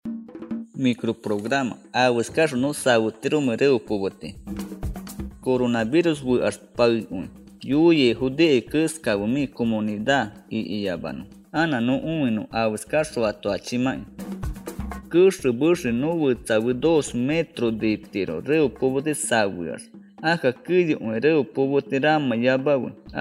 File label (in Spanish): yaminahua uso de mascarilla 1 voz, spot de radio; medidas de prevencion